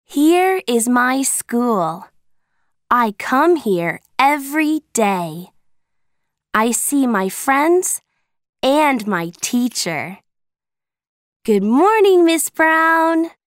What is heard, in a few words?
Audio American English; Where I Live